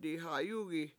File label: "diha7yUgi" i knocked it over, Washo